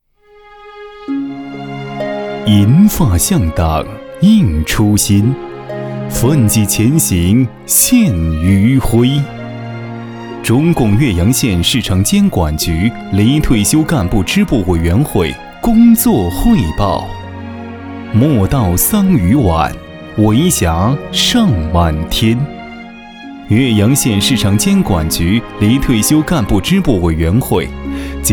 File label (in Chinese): 男 专题 党建汇报舒缓专题